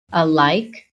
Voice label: "alike", american